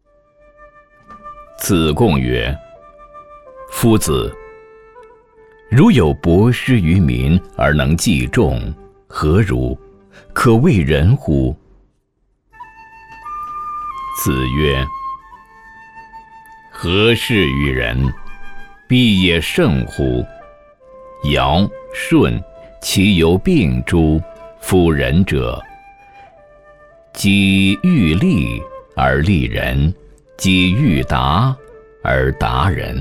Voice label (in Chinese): 男 古文题材